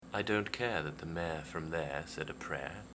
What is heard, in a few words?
care mayor there prayer, RP; speech; dialects